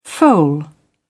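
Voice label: "foal", British English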